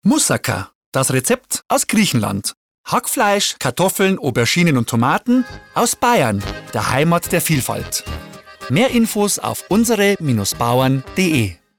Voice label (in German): Radiospot Moussaka; UBB